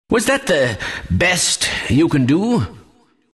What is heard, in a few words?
announcer death; dlc kleiner